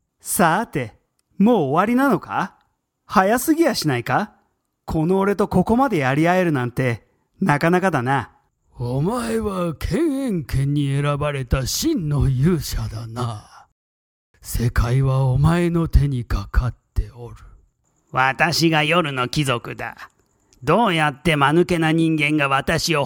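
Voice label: Japanese, voices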